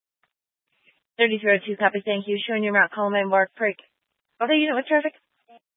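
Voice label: Police Dispatch, Scanner audio recording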